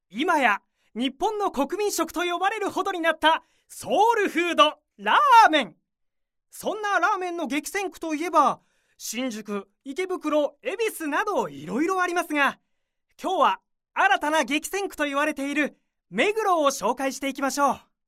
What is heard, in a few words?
narration